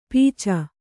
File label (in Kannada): "ಪೀಚ", Kannada